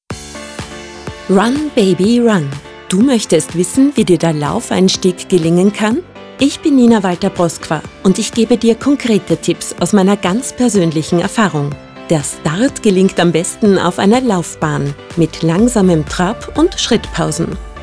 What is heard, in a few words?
Podcast; Run baby run